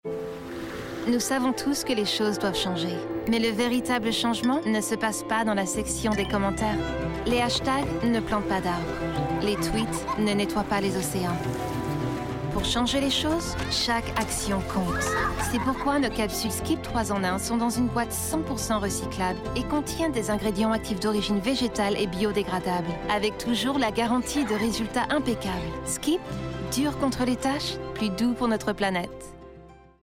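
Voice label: Promotional French; GoLocalise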